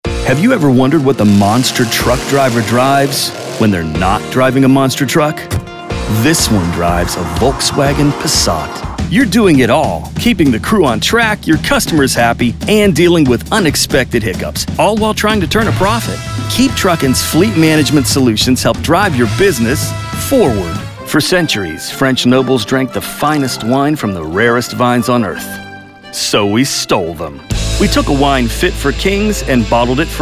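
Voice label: voice over commercial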